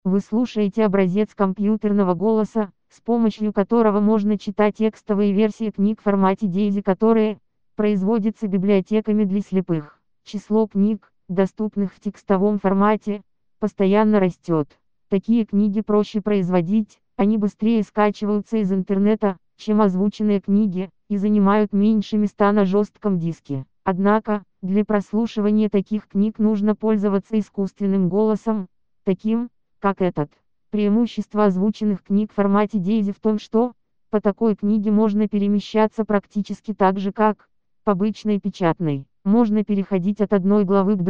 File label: Russian Female; milena